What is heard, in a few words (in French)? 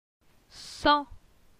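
"Sans", French; phonetique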